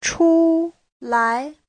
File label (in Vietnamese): "出来", Hán ngữ; (động từ) ra ngoài